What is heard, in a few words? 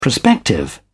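"prospective", British English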